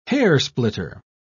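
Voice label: "hairsplitter", British English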